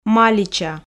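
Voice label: "малича", Komi, female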